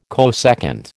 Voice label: "cosecant", American